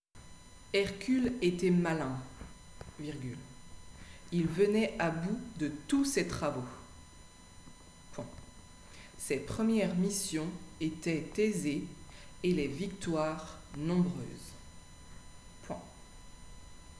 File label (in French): dictee